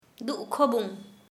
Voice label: "sadly", Kharia